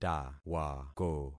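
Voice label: "tawako", concatenated syllables; Affixing experiment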